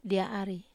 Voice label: "dia ari", Wamesa

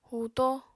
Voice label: "huuto", Apatani

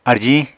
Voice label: "arjiñ", Apatani

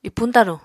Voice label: "ipuñ-taruñ", apatani

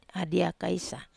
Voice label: "adia kaisa", wamesa

